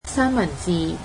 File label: cantonese sandwich